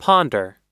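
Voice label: "ponder", US English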